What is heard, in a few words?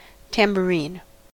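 "tambourine", US English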